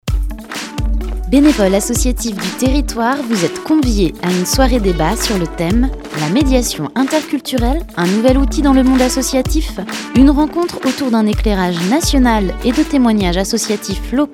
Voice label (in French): Spot Radio